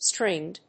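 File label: "stringed", English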